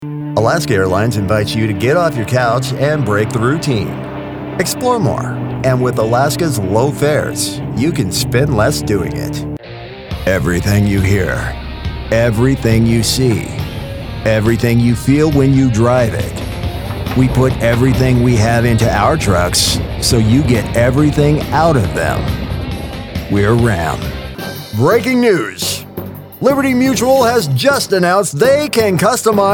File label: COMMERCIAL VO